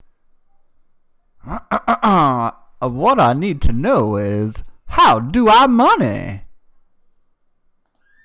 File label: bad voice